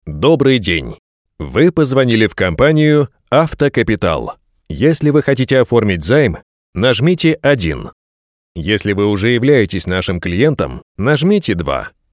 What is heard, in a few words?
RUS